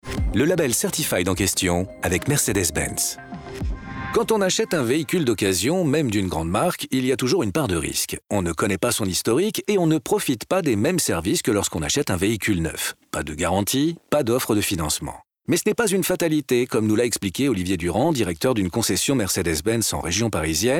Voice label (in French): Spot Securite Mix Radio; MERCEDES